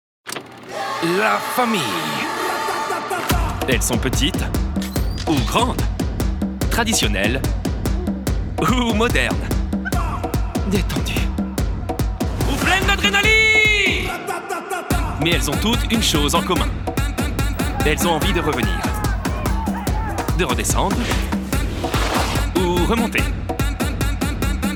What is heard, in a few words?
male French commercial; vallnord pal arinsal